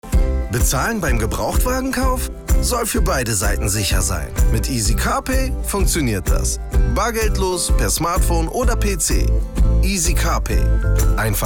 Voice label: sprecher spot; easycarpay

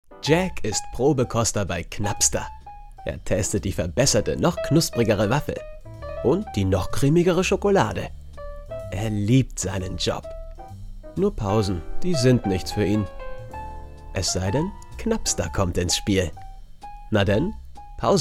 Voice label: werbung off knusperriegel

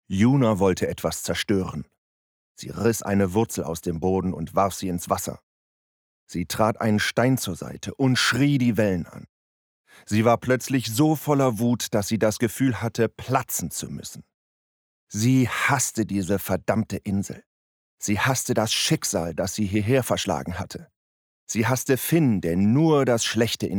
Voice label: Sprecher Kinderbuch

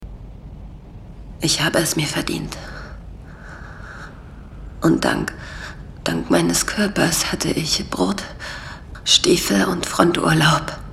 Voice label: Stimmprobe Bohnenstange

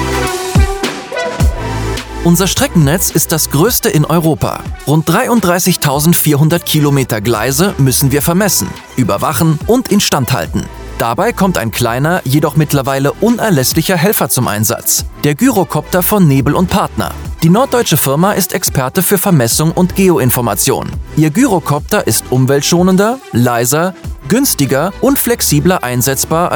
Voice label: Ansage Preisverleihung deutsch; Deutsche Bahn

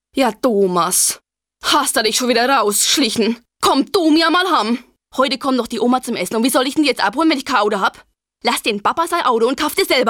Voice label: Werbung fränkisch; Opel

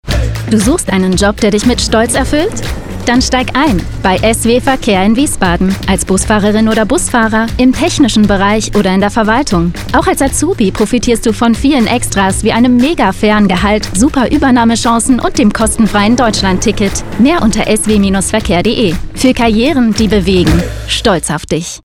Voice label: Werbespot, Commercial (Werbung), German; Werbespot/ ESWE Verkehr (Spotify)

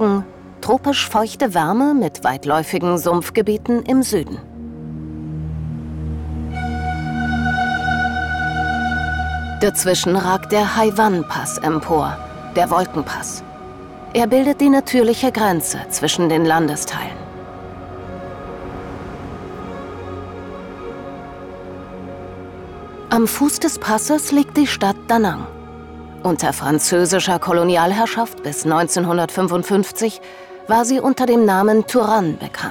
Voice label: Doku Kommentar Wildes Vietnam; Comment (Kommentar), Doku; Expeditionen ins Tierreich - Wildes Vietnam

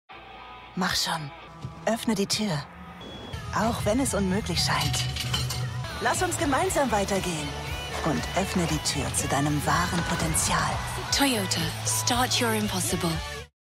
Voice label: Werbespot; Toyota

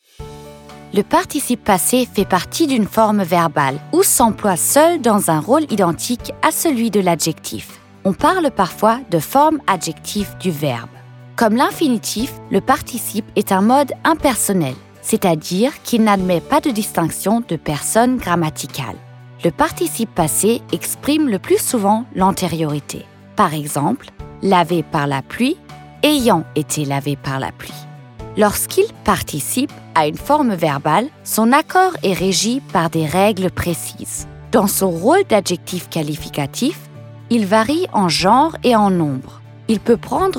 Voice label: Erklaertext FR

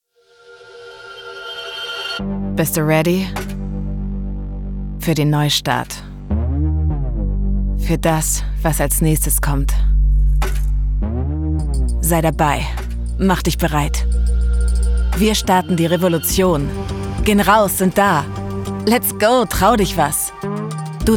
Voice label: Werbung fashion; Pure pulse

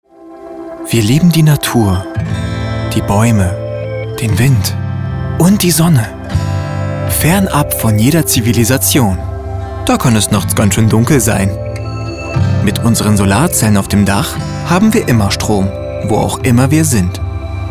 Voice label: Werbung Solarstrom; LOFT VOICES

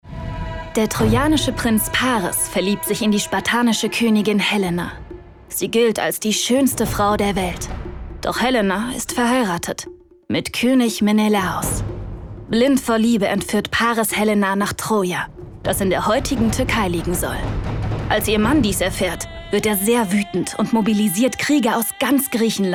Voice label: Doku; Troja; LOFT VOICES

